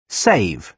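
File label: "save", British English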